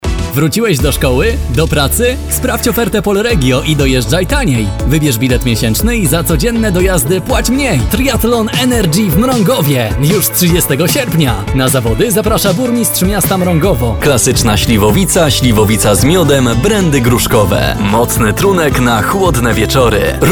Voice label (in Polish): demo lektorskie